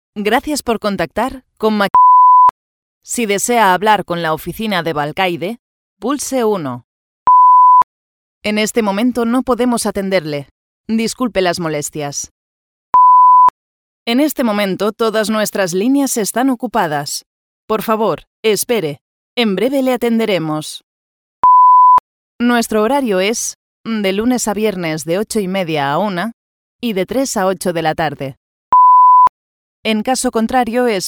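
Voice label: IVR spanish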